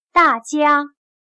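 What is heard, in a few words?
"大家", Chinese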